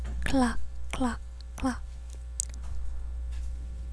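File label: "clock", spoken word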